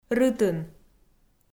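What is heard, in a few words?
"рытын", Komi, female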